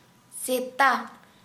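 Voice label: "dog", Santali, female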